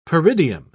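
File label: "peridium", British English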